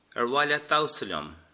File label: dance, irish